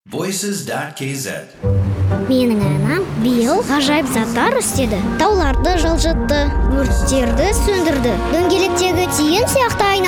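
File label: kid, Kazakh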